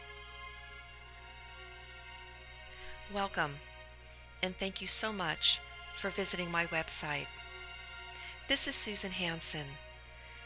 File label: special message with music